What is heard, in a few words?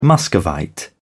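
"muscovite", British English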